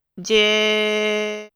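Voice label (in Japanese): "じぇ", Japanese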